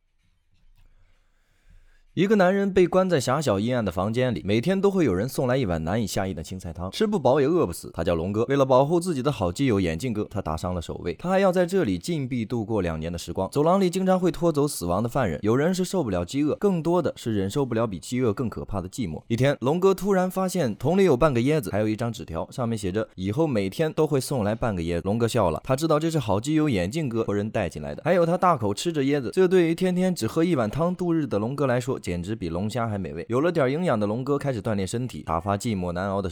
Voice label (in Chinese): 影视讲解配音